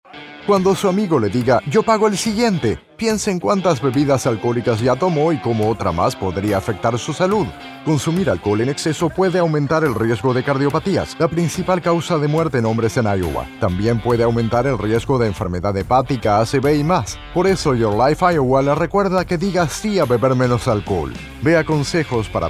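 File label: MALE Spanish, Radio Spot; Say Yes to Drinking Less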